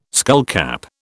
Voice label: "skullcap", British

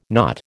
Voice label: "not", American

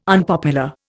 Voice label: "unpopular", Indian

